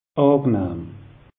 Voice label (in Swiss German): "angenahm", alsace; meteo